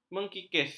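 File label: "mengkis", Malay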